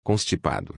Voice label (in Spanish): Constipado en portugues